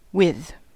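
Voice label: "with", American English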